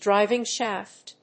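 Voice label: "driving shaft", English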